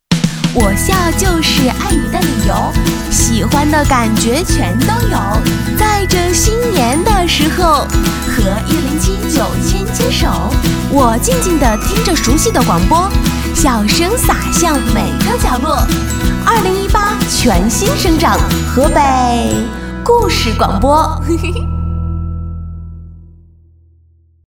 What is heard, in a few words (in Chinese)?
女-说唱-电台形象片